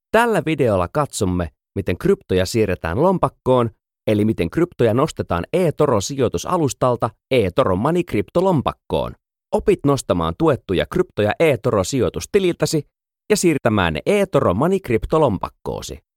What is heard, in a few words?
Finnish Voice Over